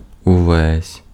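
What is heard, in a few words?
Ukrainian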